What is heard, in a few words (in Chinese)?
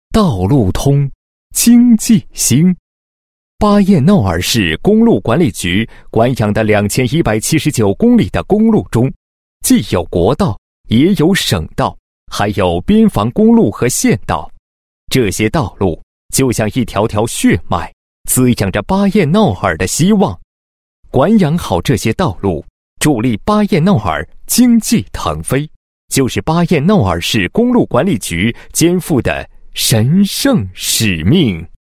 男 专题 公路管理局公路文化主题